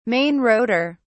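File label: "main rotor", British English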